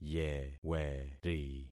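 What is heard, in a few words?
"yeweri", concatenated syllables; Affixing experiment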